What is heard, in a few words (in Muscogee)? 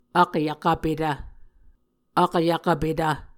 "akyvkvpetv", Muscogee; Mvskoke Words with Voicing Consonants; A Dictionary of Creek/Muskogee; SIL International; Onvkv Ohhvyayices